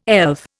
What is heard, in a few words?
"elf", Indian